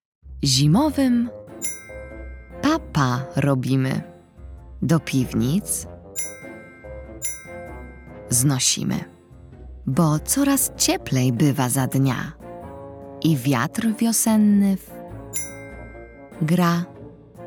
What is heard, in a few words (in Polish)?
narracja; czasopismo mis; wiosna